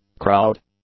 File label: "crowd", English; nouns